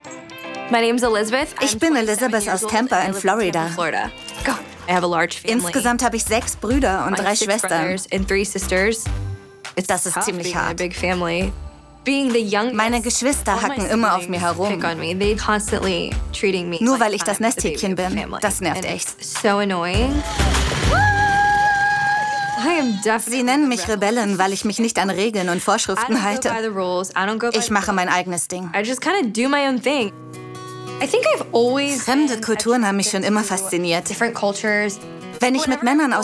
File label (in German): voice over; casting stimmprobe